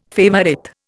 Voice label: "favourite", Indian